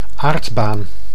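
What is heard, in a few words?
"aardbaan", Dutch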